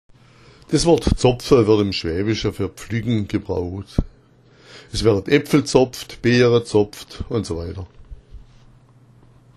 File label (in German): "zapfa", schwaebisch; Es gibt Eiszapfa, Zapfa im Fass als Verschluss, eine große Nase ist auch ein Zapfa mitten im Gesicht.